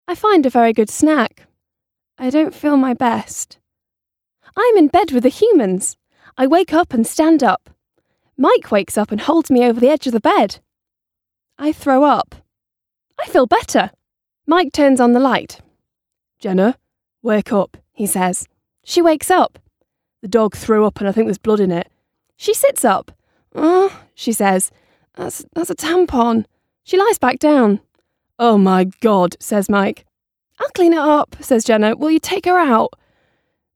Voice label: AUDIOBOOK; My Humans

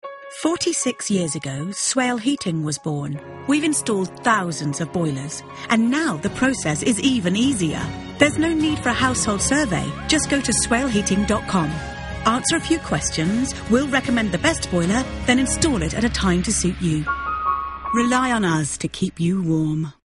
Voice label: radio commercial; Swale Heating

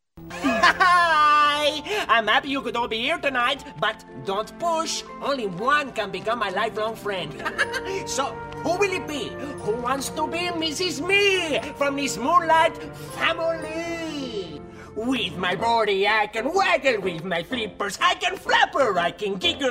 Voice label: animation reel

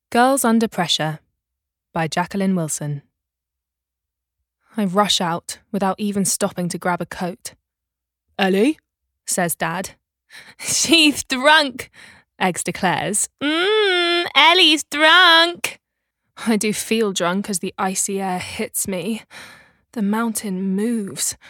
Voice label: Book Read; Girls Under Pressure by Jaqueline Wilson

